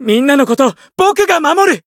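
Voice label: Voice win, Japanese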